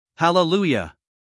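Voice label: "hallelujah", American English, male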